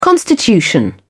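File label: "constitution", English, British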